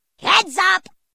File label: heads up, grunts